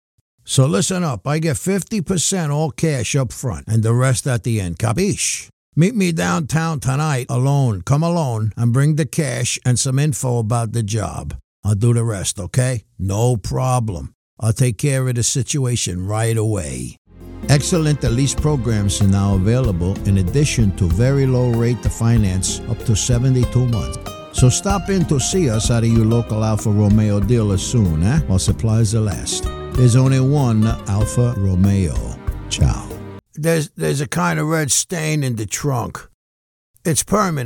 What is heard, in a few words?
NY Accent and Mobster; Combo NY Accent and Mobster Demo